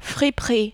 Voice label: "friperie", French

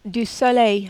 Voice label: du soleil, French

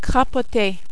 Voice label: "crapoter", French

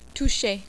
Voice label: "toucher", French

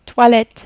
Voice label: "toilettes", French

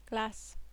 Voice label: "glace", French

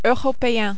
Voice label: "europeen", French

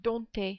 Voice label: "dompter", French

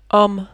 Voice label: "homme", French

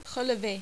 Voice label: "releve", French

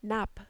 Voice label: "nappe", French

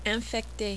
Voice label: "infecte", French

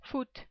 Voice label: "foot", French

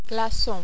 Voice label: "glacon", French

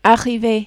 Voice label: "arrive", French

